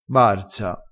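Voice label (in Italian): "barza", dialettale crespinese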